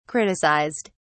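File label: "criticized", British English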